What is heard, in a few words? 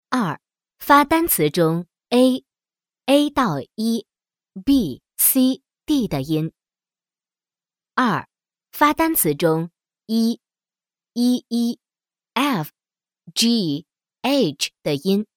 chinese female; dictor; dictor-sounds